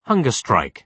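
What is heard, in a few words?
"hunger strike", British English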